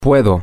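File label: I am able to can, spanish, male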